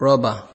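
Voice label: "robber", Nigerian Pidgin, male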